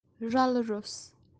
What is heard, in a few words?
"raluros" pure genuine kashmiri